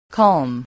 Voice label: "calm", spelling